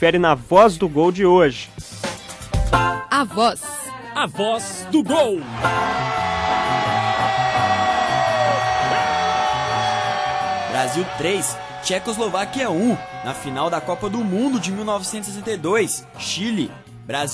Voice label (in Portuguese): LOCUTOR INGLES NARRA GOL; A VOZ DO GOL; GOL DE ZITO DA COPA DE 1962